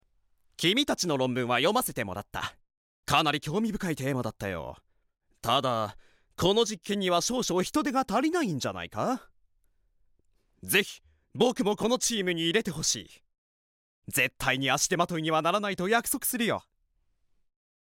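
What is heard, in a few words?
scholar, Japanese; 学者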